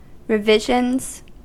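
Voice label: "revisions", US English; pronunciations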